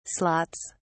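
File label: "slots", British English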